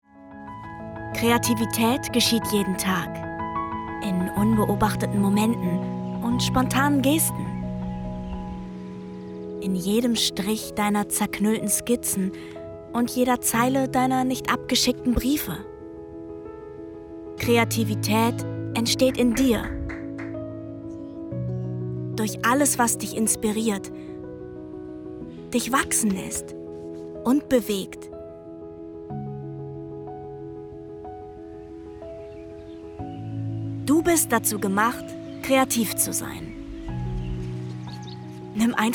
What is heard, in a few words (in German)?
Imagefilm; Faber Castell